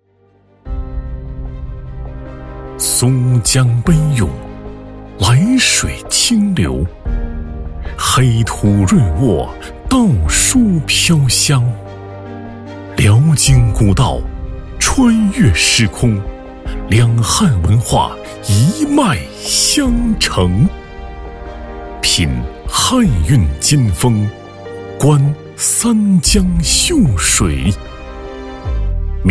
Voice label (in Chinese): 男 纪录片; 夫余